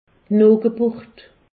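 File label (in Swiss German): "noochgeburt", Alsace, Haut Rhin; medecine; placenta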